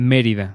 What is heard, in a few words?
Merida Pronunciation; mexico